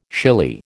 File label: "shily", American